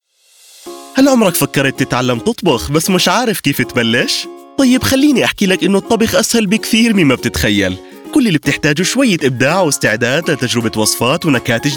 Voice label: Cooking Explainer Upbeat Energetic Happy Jordanian Arabic